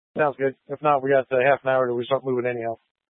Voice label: Tactical Incidents, scanner

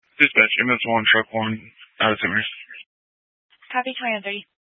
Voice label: Fire Department Dispatch

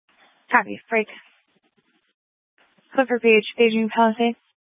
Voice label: Grand Junction Fire Department Dispatch

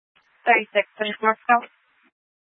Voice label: Police 1 Dispatch

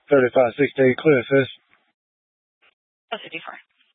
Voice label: Police Dispatch, scanner; Police 1 Dispatch

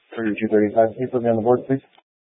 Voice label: Police Dispatch; Police 1 Dispatch

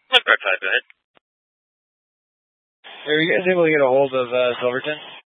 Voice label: Lifeguard Air Ambulance, Scanner audio; AirMed 1

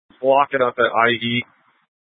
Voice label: Incident Tac, scanner; Incident Tac 1

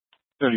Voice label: Police Department Dispatch, scanner

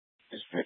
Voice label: Fire Department Dispatch, scanner; Grand Junction

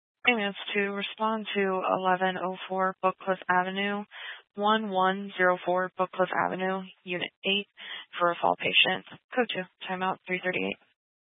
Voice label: Fire Department Dispatch; Grand Junction

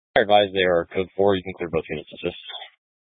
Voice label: Police Dispatch, scanner; Police 1 Dispatch

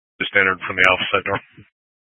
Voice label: Incident Tac 1, scanner

